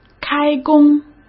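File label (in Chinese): "开工", Mandarin Chinese; hsk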